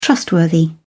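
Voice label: "trustworthy", British English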